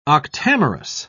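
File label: "octamerous", English, British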